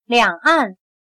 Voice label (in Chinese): "两岸", chino